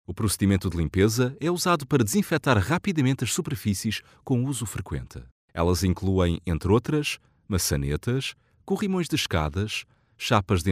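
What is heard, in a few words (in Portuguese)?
generico Portugal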